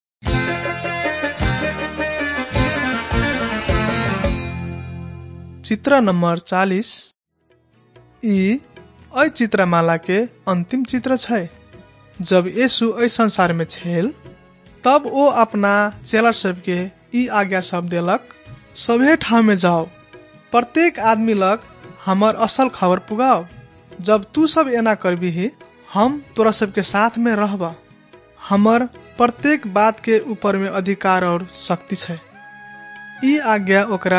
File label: maithili thetiya